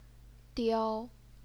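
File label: "diao", first tone, Chinese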